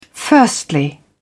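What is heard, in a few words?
"firstly", British English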